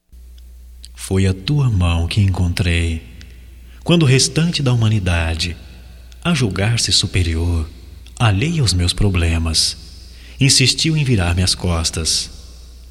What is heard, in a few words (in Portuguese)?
AMIZADE GERAL - M